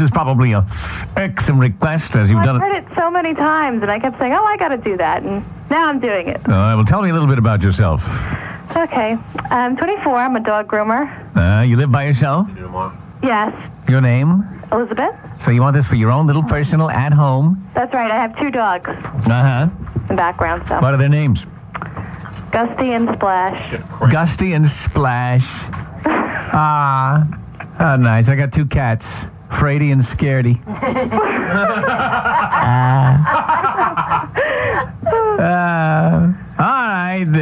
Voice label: Caller - Answering Machine